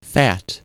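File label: "fat", US English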